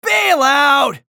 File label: chatter negative; anarki; respawn